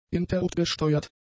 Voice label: "interruptgesteuert", German; adjectives

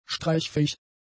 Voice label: "streichfähig", German; adjectives

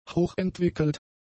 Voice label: "hochentwickelt", German; adjectives

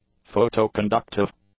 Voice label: "photoconductive", english; adjectives

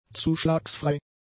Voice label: "zuschlagsfrei", German; adjectives

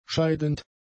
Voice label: "scheidend", german; adjectives

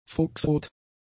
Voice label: "fuchsrot", German; adjectives

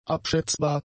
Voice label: "abschätzbar", German; adjectives

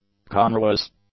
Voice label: "congruous", English; adjectives

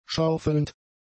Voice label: "schaufelnd", german; adjectives

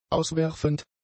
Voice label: "auswerfend", German; adjectives

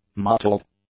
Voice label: "mottled", English; adjectives

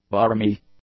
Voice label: "barmy", English; adjectives

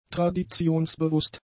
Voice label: "traditionsbewusst", German; adjectives

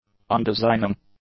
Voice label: "undesigning", english; adjectives

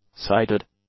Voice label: "sided", English; adjectives

